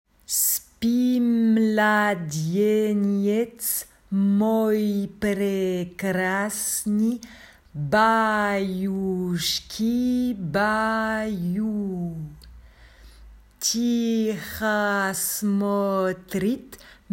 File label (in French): berceuse cosaque prononciation